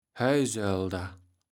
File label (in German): "Heusölder", Platt